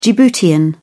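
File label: "djiboutian", British English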